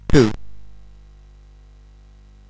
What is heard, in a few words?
speech, sid CSG8580R5; Zyron